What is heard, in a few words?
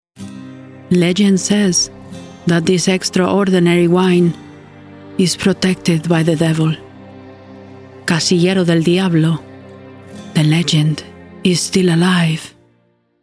Spanish Accent